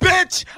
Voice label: "bitch", expletives